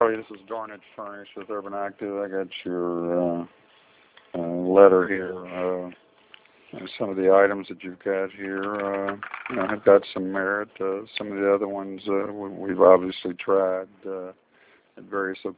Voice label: Voicemail